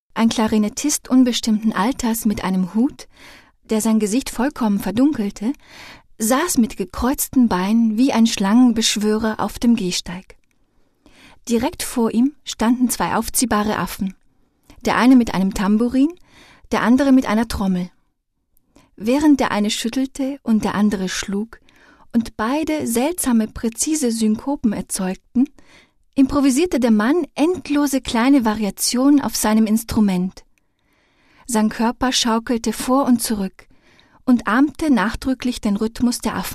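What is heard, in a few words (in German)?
acting, Dynamisch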